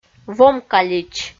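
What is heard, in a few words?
"вом калич", Komi, female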